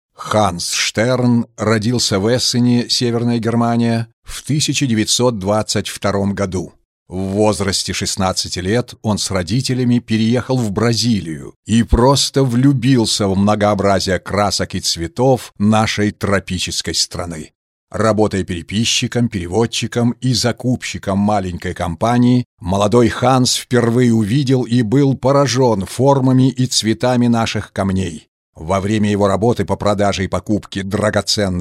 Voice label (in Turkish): Rusca Erkek, rusca seslendirme